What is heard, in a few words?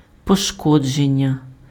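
"пошкодження", Ukrainian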